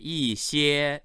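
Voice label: "yi4xie1", Chinese, male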